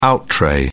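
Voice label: "out-tray", British English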